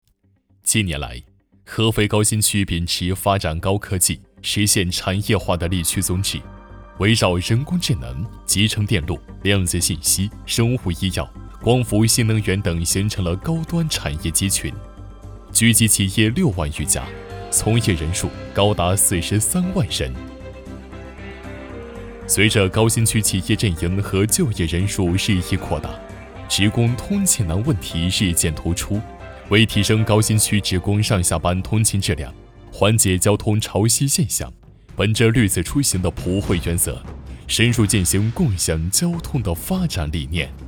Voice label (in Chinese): 正式讲述; 通勤出行